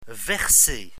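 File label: "verser", French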